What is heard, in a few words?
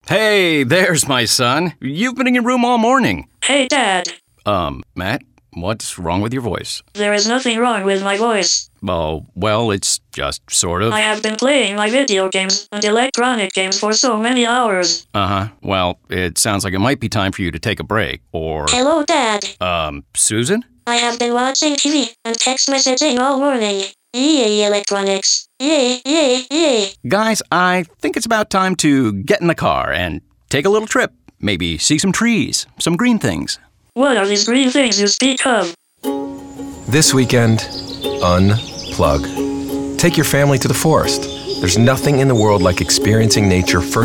PSA; Discovering Nature